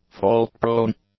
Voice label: "fault-prone", english; adjectives